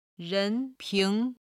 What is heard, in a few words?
"ren2 ping2", Chinese